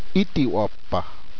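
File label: noon, spoken in Shiwi; Zuni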